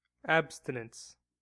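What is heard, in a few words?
"abstinence", US English; pronunciation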